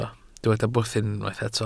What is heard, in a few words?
"bwthyn", Welsh